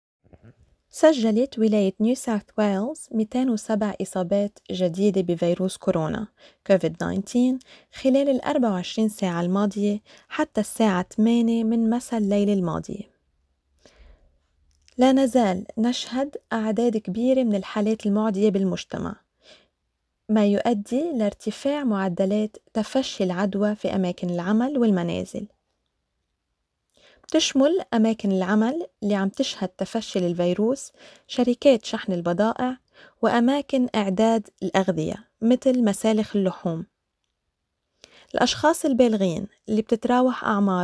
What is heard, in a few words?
arabic; covid-19 coronavirus; covid-19 daily key points